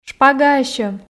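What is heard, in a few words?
"шпагаасьӧм", Komi, female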